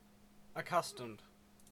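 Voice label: "accustomed", Canadian English; pronunciation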